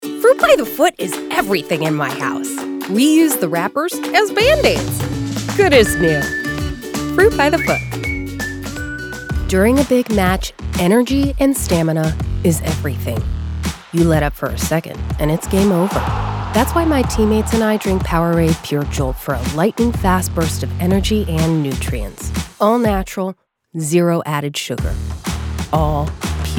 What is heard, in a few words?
Female Commercial